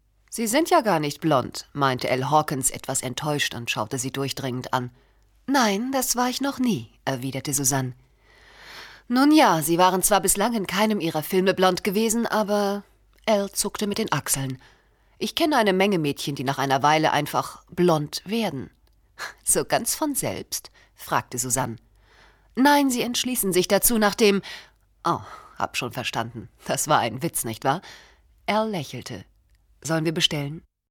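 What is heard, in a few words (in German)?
Erzählung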